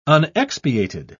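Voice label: "unexpiated", British English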